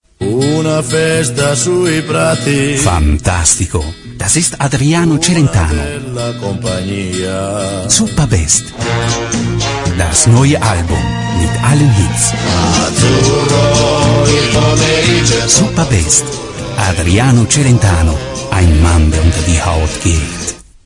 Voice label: Sprechprobe deutsch Spot

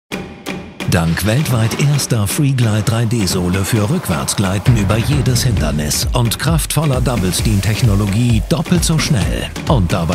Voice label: TV Spot; Braun

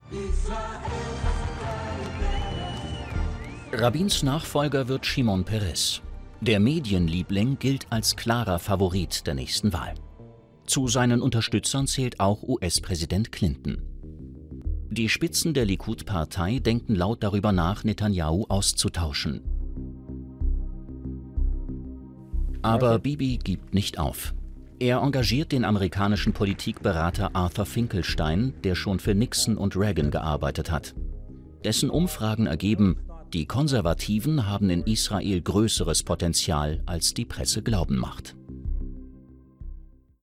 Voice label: Doku; Arte

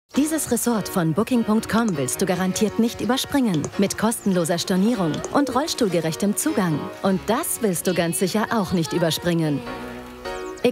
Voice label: Werbung direkt klar; BookingCom

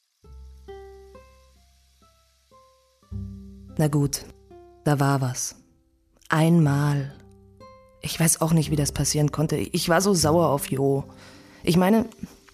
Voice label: mit musik

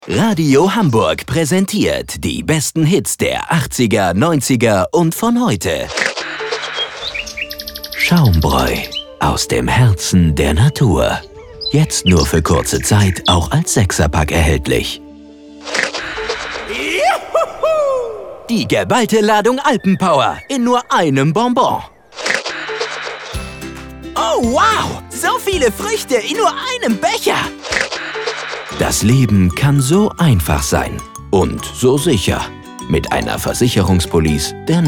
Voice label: Werbung Voice Over

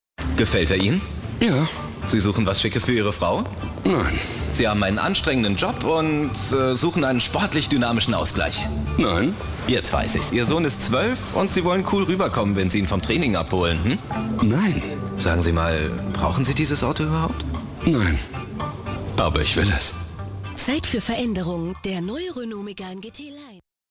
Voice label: tv dialog verkaeufer; renault

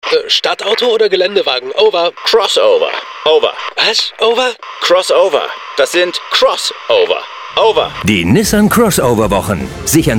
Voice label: Werbung Funkspot; Nissan

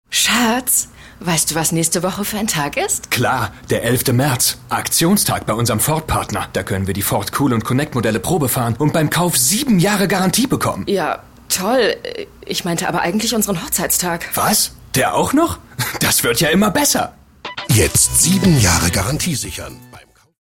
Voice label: Radiowerbung; Ford

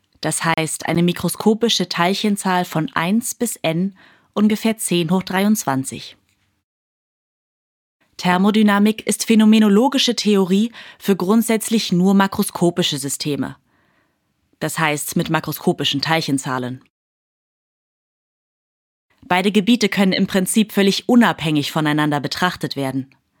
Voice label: Hoerprobe E Lerning

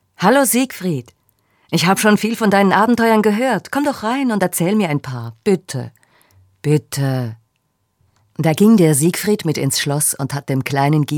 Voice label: Hörbuch

